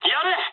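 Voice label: Japanese attack position mood high; War Thunder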